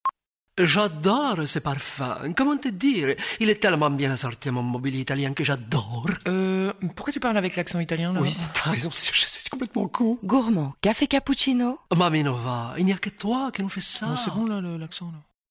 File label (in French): PUB Accent; CAPPUCCINO